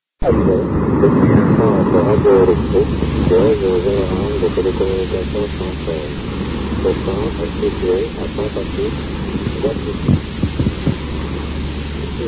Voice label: usb; 1966